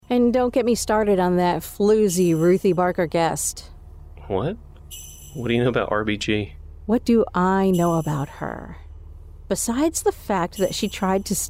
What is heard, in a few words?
Podcast; Character of Karen Claus; Santa Maybe A Criminal Podcast